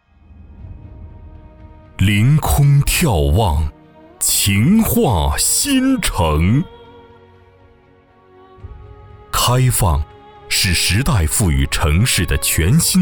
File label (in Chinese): 多媒体 项目规划 新城规划, 男